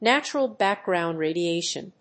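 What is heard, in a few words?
"natural background radiation", English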